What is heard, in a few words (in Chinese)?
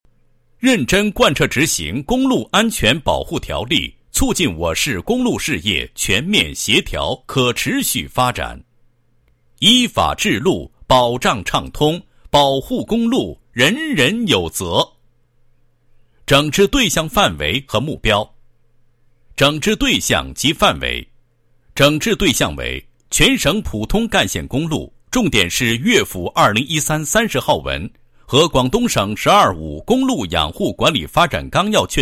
男 解说 公路安全保护条例; 认真贯彻执行